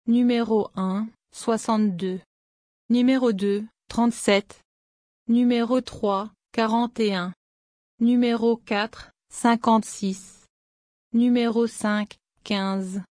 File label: Numbers to 69 French